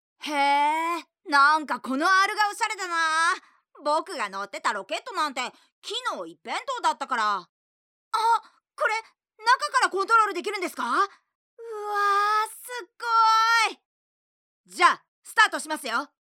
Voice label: female